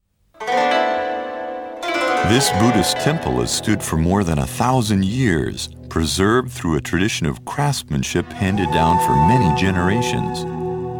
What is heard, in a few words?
usa man